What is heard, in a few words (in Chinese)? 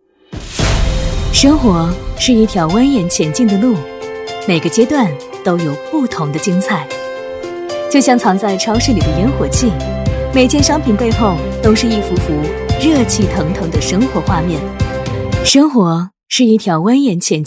女, 宣传, 阳光年轻; 沉稳大气